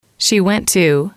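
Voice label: "she went to", English, female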